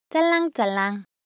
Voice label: Korean, Ideo Phones

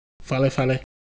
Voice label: Ewe Shape, ideophones

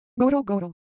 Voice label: Japanese Motion, Ideo Phones

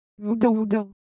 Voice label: Ewe Texture, IdeoPhones

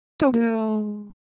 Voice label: Ewe, ideophones; Shape

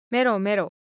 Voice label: Japanese, Ideo Phones; Color Visual

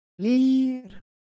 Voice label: Semai Sound; ideophones

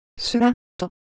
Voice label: Japanese Shape, Ideo Phones

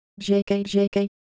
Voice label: Ewe Texture, IdeoPhones

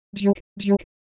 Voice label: Korean, ideophones; Motion

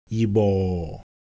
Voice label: Ewe Color Visual, ideophones

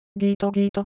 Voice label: Japanese, ideophones; Texture

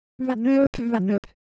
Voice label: Korean Sound, ideophones

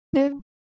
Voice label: Japanese Sound, Ideo Phones

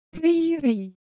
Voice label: Korean, Ideo Phones; Motion

